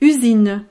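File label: "usine", French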